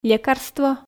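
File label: "лекарство", Komi, female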